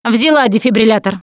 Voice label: defibrillator, russian; Producer